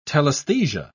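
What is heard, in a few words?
"telaesthesia", British English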